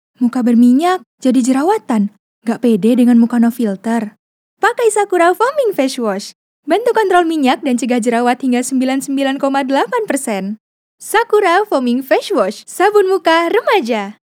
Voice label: CASUAL BAHASA INDONESIA, With her light and playful vocal quality